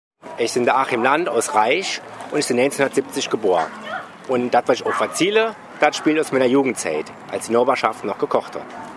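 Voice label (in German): stellt sich vor, O-Ton Hunsrück; Reich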